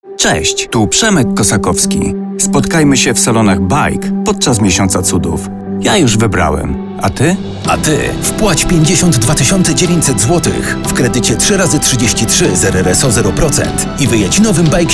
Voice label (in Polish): reklama baic 7